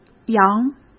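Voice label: "洋", Mandarin Chinese; chinese flashcards; hsk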